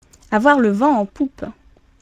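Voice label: "avoir le vent en poupe", French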